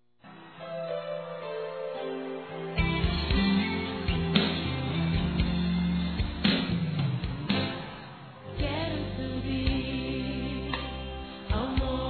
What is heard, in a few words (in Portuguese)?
Aniversário Neutro Evangelico Masculino, Telemensagens